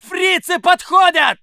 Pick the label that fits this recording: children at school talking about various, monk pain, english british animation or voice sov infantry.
voice sov infantry